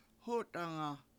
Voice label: "hOtanga", Washo; what

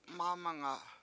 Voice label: "mAmanga7", washo; they disagree about x

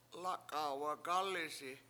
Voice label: "lAk7a7 wagAlisi7", washo; one year

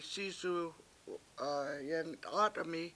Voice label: "sIIsu yengt$AAt$1mi", washo; Birds all flew away

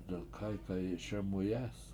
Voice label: "delkAykayi7 SemuyEES" Short Not Very Tall, Washo

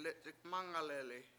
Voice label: "lec7ikmAngaleli7", washo; you bumped me

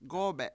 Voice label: "gOObe7", Washo; coffee

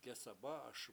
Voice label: blow it out, Washo; gesabA7aS

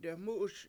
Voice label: "dewmU7uS" train, vehicle, Washo

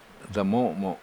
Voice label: "da7mO7mo7", Washo; a woman

